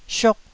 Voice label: "siuk4", Taiwanese syllable